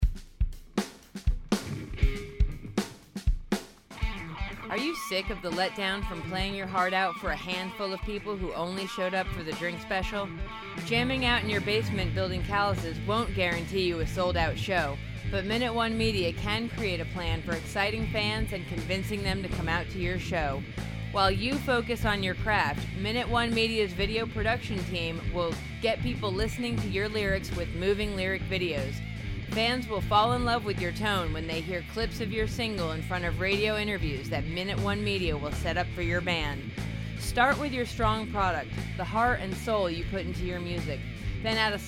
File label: Example 60 sec commercial